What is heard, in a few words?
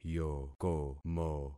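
"yokomo", concatenated syllables; Affixing experiment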